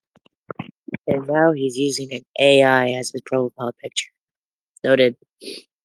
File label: voice message